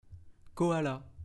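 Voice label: "Koala", French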